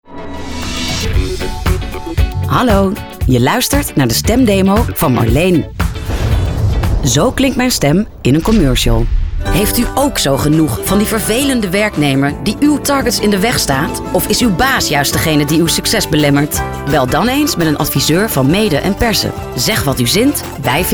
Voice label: VOICE DEMO